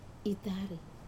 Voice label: "tare", Wamesa